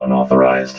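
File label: "unauthorised", robot voice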